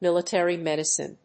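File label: "Military Medicine", English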